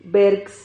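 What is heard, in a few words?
"bergs", Spanish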